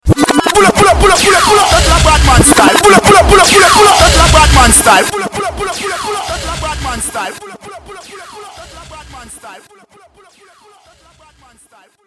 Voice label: ding dong - pull up dat inna badman style effect